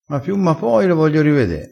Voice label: "ma piu ma poi", crespinese, vocabolario dialettale

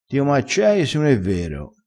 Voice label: "dio m accei", crespinese

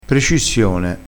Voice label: "precissione", dialettale, crespinese

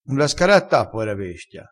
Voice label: "scaratta", dialettale

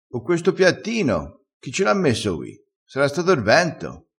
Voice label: "te lo cicchi", crespinese

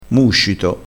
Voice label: "muscito", dialettale crespinese

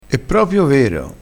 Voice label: "propio", dialettale, crespinese

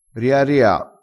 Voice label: "riaria", vocabolario dialettale

